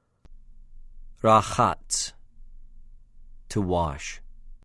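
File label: "רָחַץ", Hebrew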